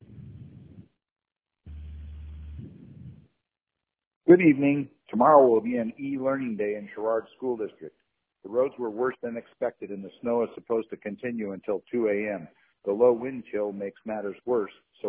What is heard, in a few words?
voicemail